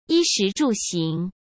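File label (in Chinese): "衣食住行", Mandarin Chinese; hsk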